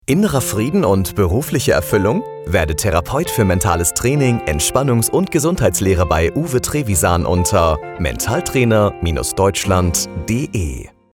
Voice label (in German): Funkspot; Therapeutenausbildung